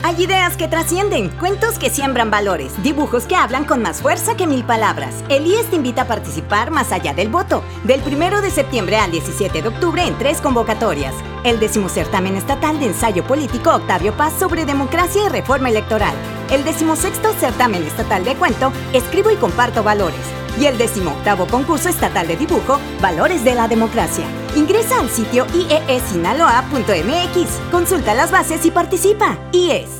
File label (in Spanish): SPOT RADIO; CONVOCATORIAS SEP OCT